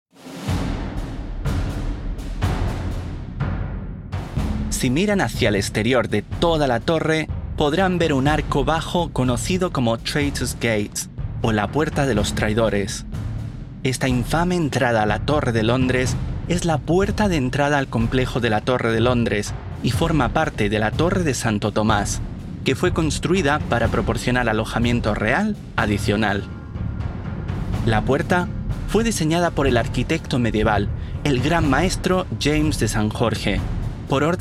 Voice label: Crown Jewels Spanish